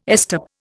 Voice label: "estop", Indian

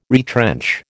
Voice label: "retrench", American

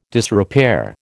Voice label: "disrepair", American

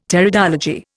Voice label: "Pteridology", Indian; Dictionary

